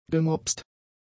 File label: "gemopst", German; adjectives